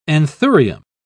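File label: "anthurium", English, British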